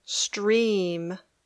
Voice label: "stream", English